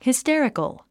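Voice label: "hysterical", English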